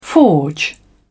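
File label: "forge", British English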